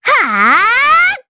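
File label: Vox Casting Japanese; Estelle; kingsraid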